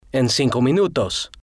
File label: "en Cinco Minutos", Spanish